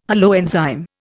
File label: "holoenzyme", Indian